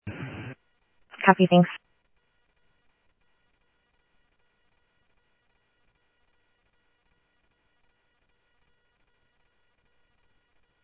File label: Phoenix PD, scanner audio; ThompsonPeakHigh; PHOENIX PD-200 A